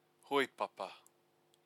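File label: "hoepapa", Maori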